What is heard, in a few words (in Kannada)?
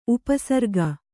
"ಉಪಸರ್ಗ", Kannada